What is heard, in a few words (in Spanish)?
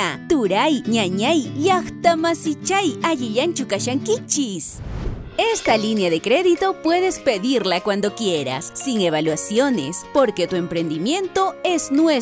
Locutora Bilingue